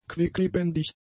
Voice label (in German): "quicklebendig", German; adjectives